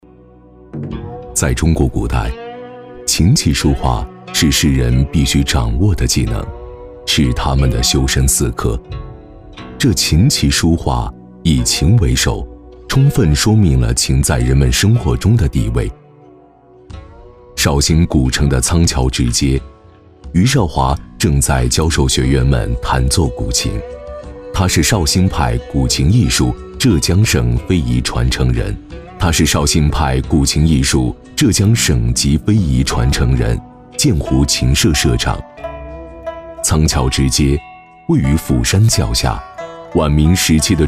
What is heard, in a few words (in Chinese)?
男 专题片 古琴